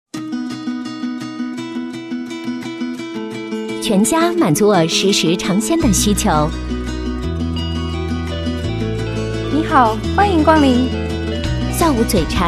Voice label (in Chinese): 女 广告, 国语女声配音 大气; 全家关东煮